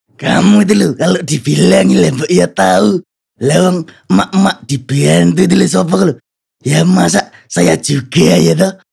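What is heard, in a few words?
character INDO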